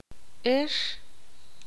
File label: ixil; vosotros ustedes